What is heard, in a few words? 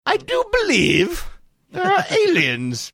saying i do believe there are aliens funny